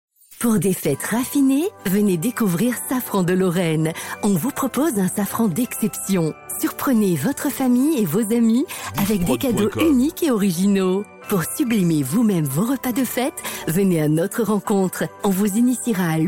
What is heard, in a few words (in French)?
Radio Spot Noel, publicite; Made In; Safran de Lorraine; Diffprod